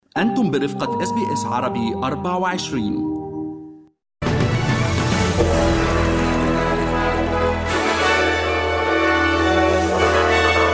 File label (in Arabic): نشرة أخبار الصباح, SBS Arabic